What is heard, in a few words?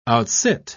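"outsit", British English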